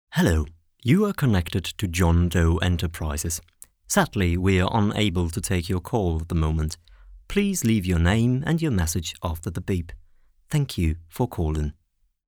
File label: voice mail